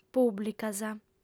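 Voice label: "pùblicas", female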